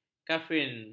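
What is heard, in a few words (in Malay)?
"kafein", Malay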